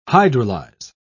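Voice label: "hydrolyze", British English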